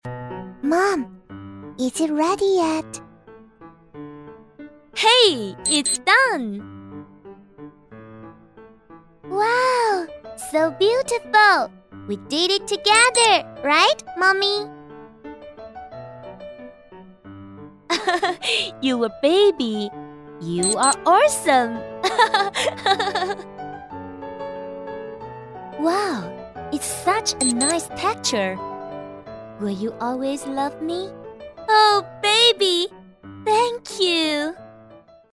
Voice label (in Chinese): 女 英文角色 5、6岁女童 妈妈